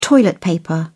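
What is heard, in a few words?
"toilet paper", British English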